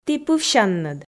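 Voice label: "ті пывсянныд", Komi, female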